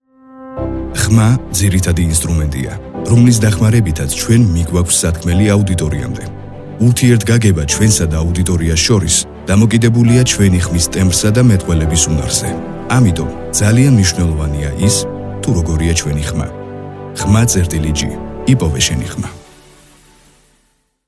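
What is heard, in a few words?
Georgian, male, documentary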